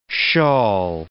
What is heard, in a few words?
"shawl", English